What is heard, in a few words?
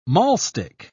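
"mahlstick", British English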